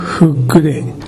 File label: "fuggure", minamiyamagata